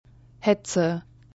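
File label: "Hetze", German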